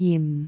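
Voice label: "jim4", Cantonese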